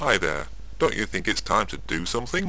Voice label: chat